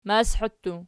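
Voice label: "mashi", plural, Archi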